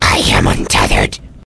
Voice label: wound, vort speech; hl2